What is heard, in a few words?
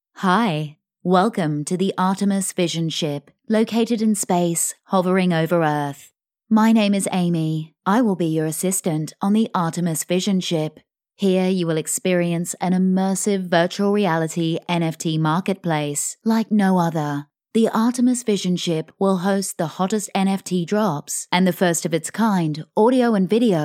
English Voice Over Sample